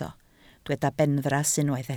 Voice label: "benfras", Welsh

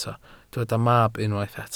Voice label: "mab", Welsh

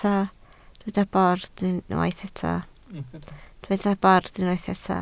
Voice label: "bord", Welsh

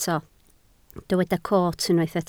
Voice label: "coot", Welsh

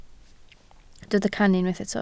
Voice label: "cannu", Welsh

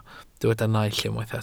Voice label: "naill", welsh

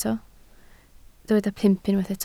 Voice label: "pump", Welsh

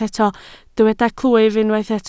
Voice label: "clwyf", Welsh

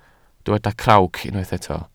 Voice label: "crawc", Welsh

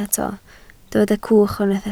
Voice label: "cwch", Welsh

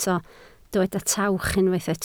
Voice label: "tawch", Welsh

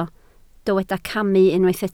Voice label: "camu", Welsh

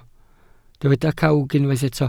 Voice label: "cawg", Welsh

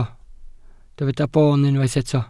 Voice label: "boon", Welsh

